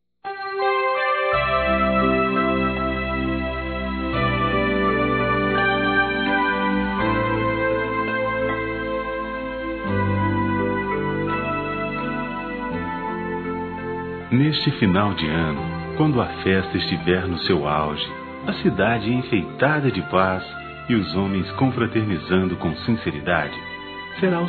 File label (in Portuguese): Ano Novo Masculino; Telemensagens; Natal e Ano novo